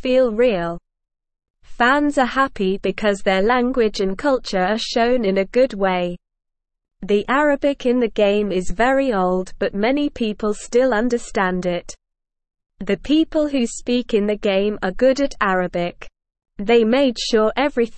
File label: English Beginner SLOW Reading; New Video Game Shows Arabic Language and Old Baghdad